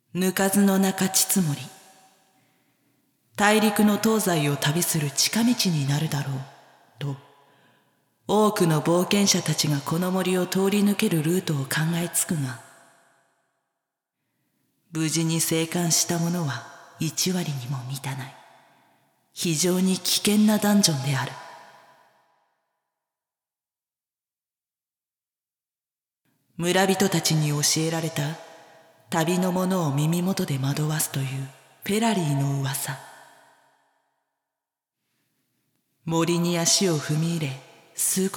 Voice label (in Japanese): (SEなし) ナレーション; 淫妖精の森